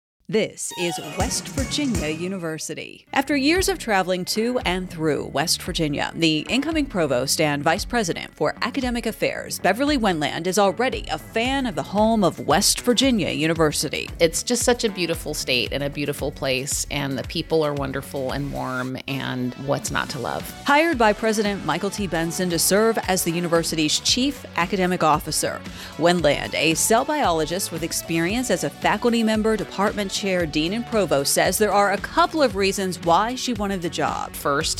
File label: radio spot; provost hire; mic leader to serve as provost and vice president for academic affairs